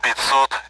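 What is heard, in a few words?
Speech Sound Russian Common AWACS Numbers; 50; DCS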